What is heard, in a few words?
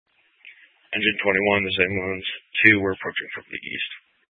Incident Tac 2, Scanner audio recording